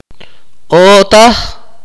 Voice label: "know", akateko